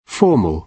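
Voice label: "formal", British English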